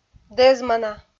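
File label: "дӧзмана", Komi, female; kpv audio dict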